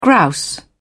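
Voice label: "grouse", British English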